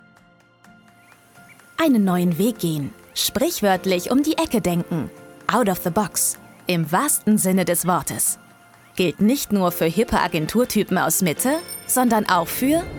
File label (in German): erklaerfilm; fgsv